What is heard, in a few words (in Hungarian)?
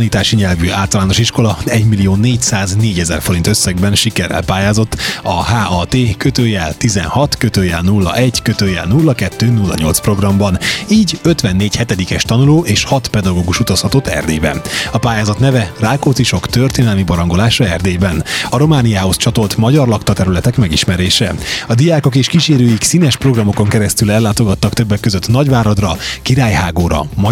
rádió hanganyag; HAT-KTÍV